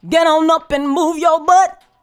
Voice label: GET ON UP, FEMALE VOICE; Best Service; Hallelujah

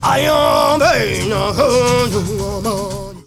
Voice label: male Zulu, male noise

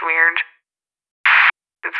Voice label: "Weird", vocal samples; Words

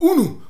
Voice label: "rumania", shouts; Best Service; Hyper Dance

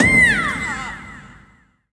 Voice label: VOICE, VOICE-FX

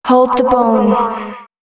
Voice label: female, spoken hooks

